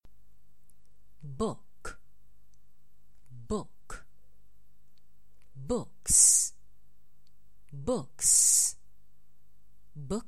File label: nonvoiced